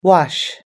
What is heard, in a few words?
"wash", US English